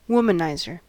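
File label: "womanizer", American English; pronunciations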